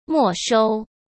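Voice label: "没收", Mandarin Chinese; chinese flashcards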